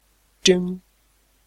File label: "jing1", Chinese